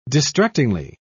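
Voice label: "distractingly", British English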